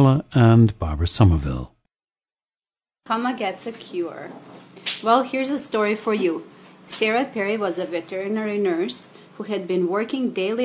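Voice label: serbia, dialects of English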